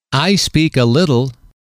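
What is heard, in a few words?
"I speak a little", English, male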